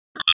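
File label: EMS Dispatch; wake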